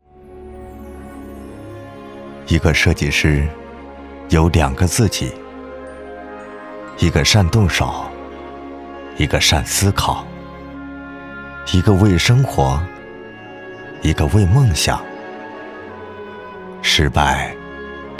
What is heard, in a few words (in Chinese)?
旁白 男 深情讲诉; 设计师